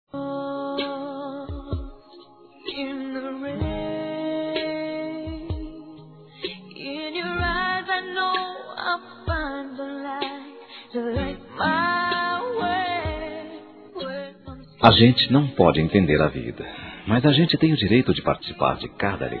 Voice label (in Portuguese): VOCÊ É IMPORTANTE PRA MIM M; Telemensagens; Conquistas Cantadas paqueras; masc; Telemensagens 2017